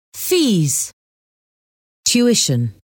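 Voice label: "fees tuition", English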